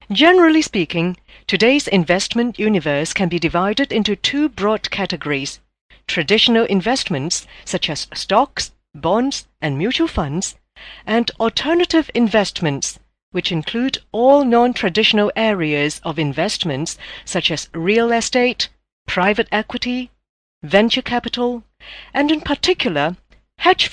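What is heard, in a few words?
Chinese Cantonese Accented English; Golocalise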